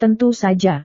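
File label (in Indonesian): "tentu saja", Indonesian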